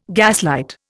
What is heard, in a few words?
"gaslight", Indian